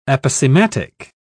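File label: "aposematic", British English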